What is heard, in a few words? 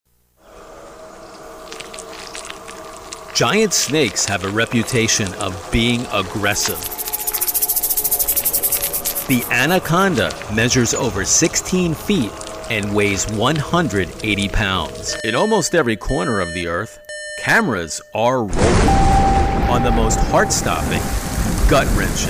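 narration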